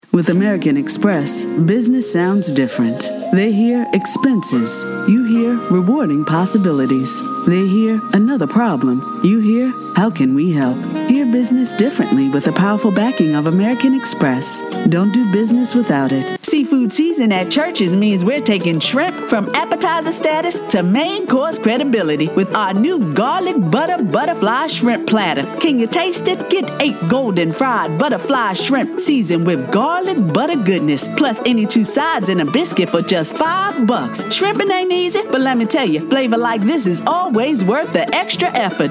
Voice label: Commercials

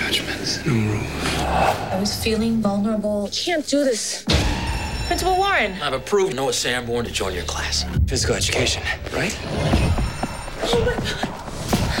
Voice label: boy next door tv

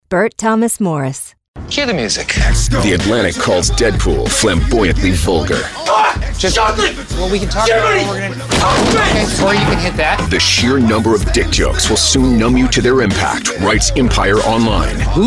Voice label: trailer

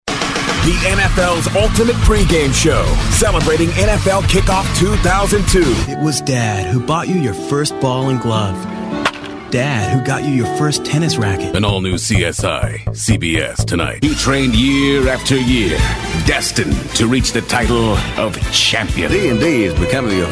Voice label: commercial

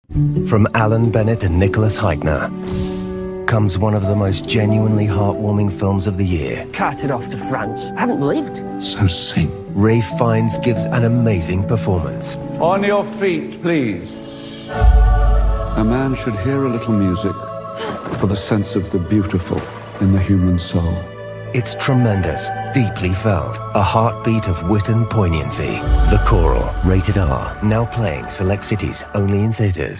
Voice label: TV Spots

